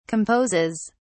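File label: "composes", English, British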